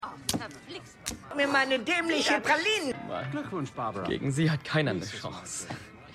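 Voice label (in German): Ältere Frau Altenpfleger; Hello Tomorrow!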